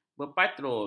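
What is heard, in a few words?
"berpatrol", Malay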